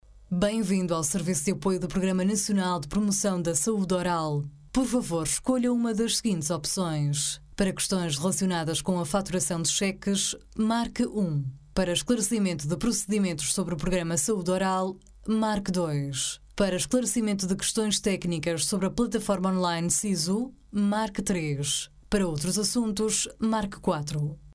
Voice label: locutora portuguesa portuguese voiceover; speaker; portoghesi

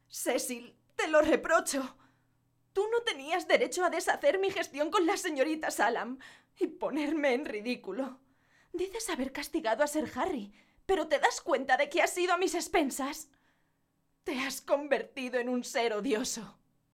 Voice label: locutora spanish voiceover interpretacion

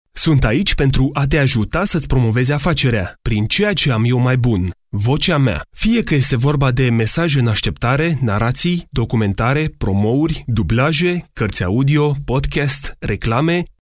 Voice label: locutor rumano romanian voiceover; locutor de Rumanía

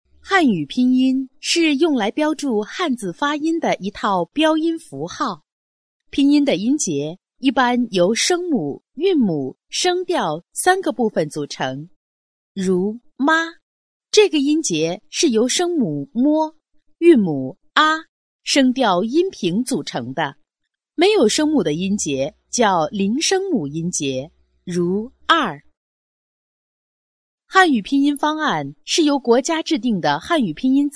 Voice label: mandarin female chinese voiceover locutora china

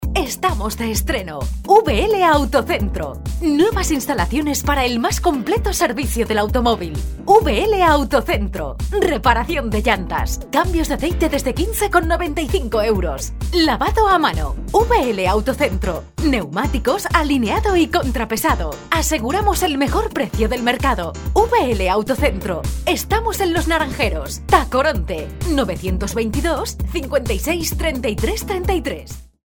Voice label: locutora spanish female voiceover; Spanisch Sprecherin

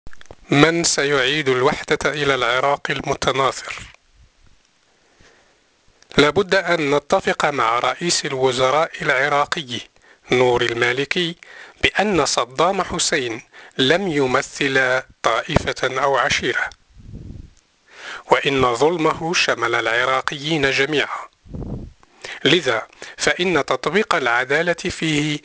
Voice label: locutor arabe, Arabic male voice over

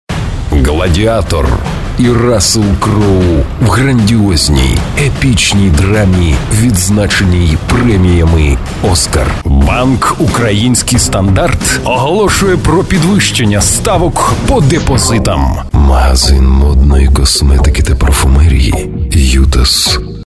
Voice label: locutor ucraniano ukrainian voiceover

